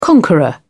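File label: "conqueror", British English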